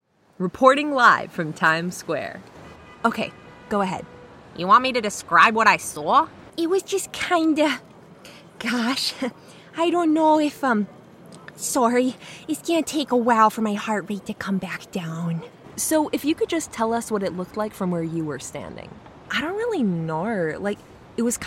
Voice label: Character

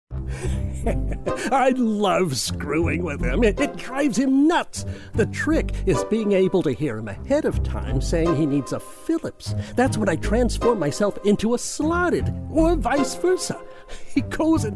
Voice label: Animation

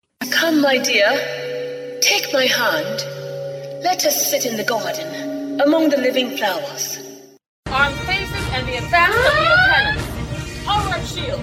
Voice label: Animation Character

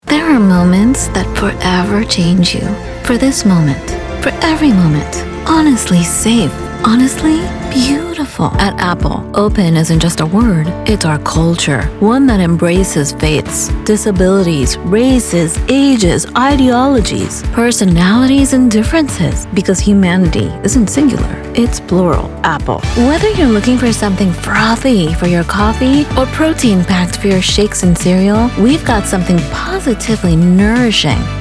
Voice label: Commercial English

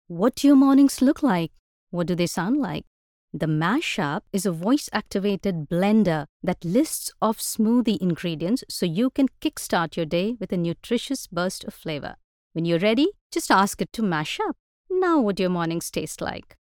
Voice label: Retail Girl Next Door Commercial

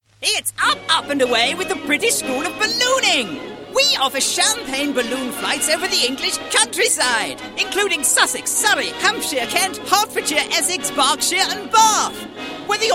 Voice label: Commercial Montage

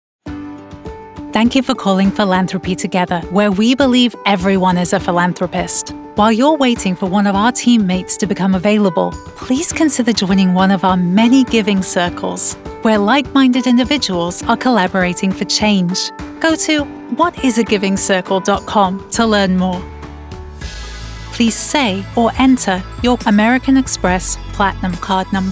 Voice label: Telephony

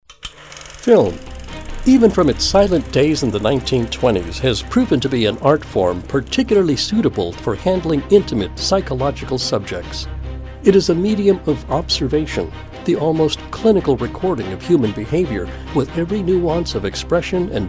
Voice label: Narration

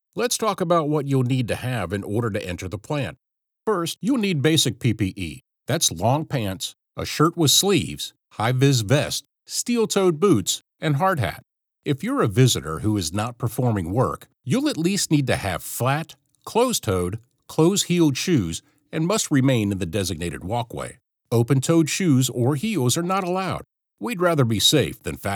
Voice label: Safety Video

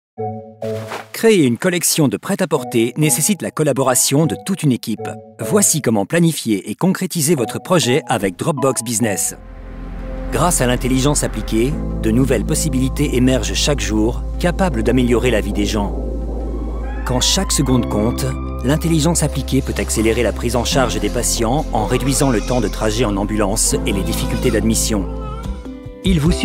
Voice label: French Info Guide Explainer Voice

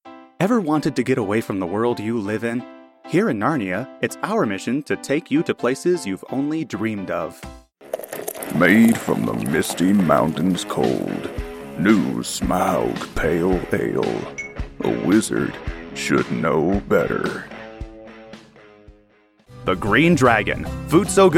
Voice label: Characters for Commercials

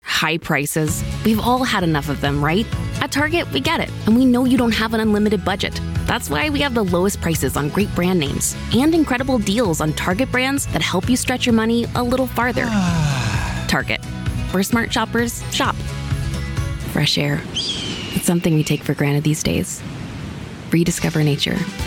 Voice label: Commercial

